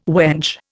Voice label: "wench", Indian

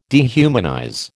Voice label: "dehumanize", American

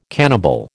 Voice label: "cannibal", American

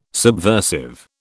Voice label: "subversive", British

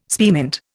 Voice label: "spearmint", Indian

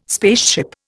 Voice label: "spaceship", Indian

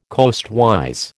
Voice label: "coastwise", American

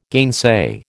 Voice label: "gainsay", American

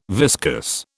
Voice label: "viscus", British

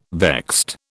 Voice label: "vexed", British

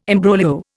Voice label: "imbroglio", Indian

